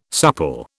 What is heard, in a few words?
"supple", British